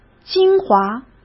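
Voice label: "精华", Mandarin Chinese; chinese-flashcards; hsk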